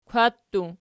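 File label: "kwatii", plural, Archi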